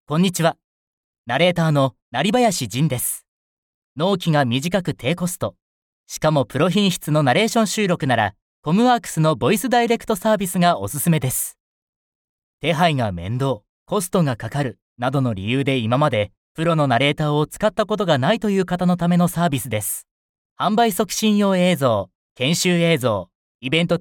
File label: narration man; 標準